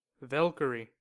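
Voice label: "valkyrie", US English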